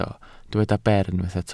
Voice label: "ber", Welsh